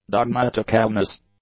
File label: "dogmaticalness", English